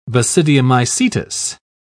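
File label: "basidiomycetous", British English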